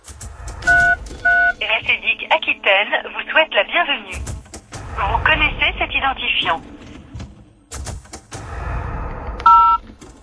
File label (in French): Repondeur; des Assedic